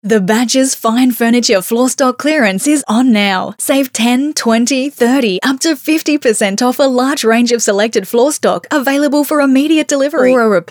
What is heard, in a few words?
Soft Sell